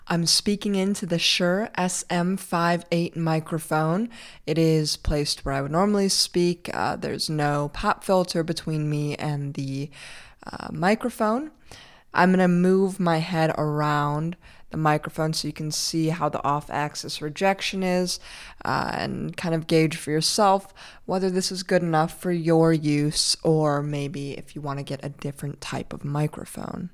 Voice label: Shure SM58 microphone